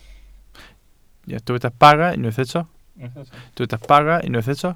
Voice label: "bara", Welsh